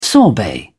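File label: "sorbet", British English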